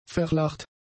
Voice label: "verlacht", German; adjectives